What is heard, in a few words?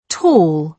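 "tall", British English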